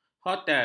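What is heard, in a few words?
"hotel", Malay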